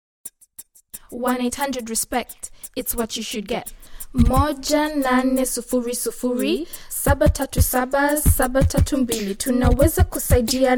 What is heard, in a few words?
Swahili English; 1800RESPECT